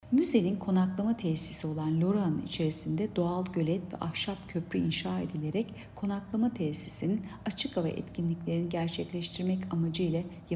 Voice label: turkce